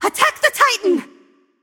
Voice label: vox attack; smite; tiamat

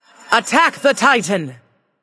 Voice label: vox attack; smite; nox; voicepack

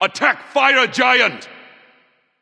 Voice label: vox attack; smite; ra; voicepack

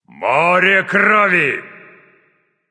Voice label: kill streak, russian; caster

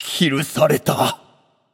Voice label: notify slain you, japanese; caster

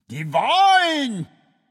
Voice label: alert notify kill streak, japanese; caster

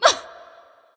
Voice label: freya frustration

